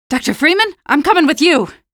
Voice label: squad reinforce single, female; Half-Life 2

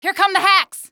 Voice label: here come hacks, female; Half-Life 2

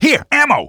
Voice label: ammo, male; Half-Life 2

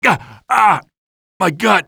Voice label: my gut, male; Half-Life 2

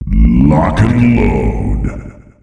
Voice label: Lock and Load, announcer male; Unreal Tournament; ut2004

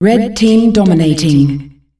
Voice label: red team dominating, announcer female; Unreal Tournament; ut2004

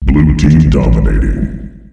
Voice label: "blue team dominating", announcer male; Unreal Tournament; ut2004

